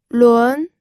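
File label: "lun", Mandarin Chinese, second tone; pinyin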